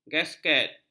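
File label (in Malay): "gasket", Malay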